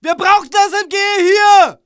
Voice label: ger move up mg, german